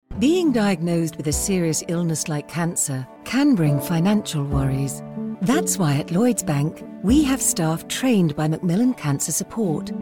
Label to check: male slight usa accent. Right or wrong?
Wrong